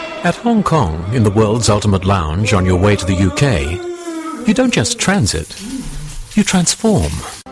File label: Brit commercial